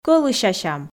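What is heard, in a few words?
"кӧлысясям", Komi, female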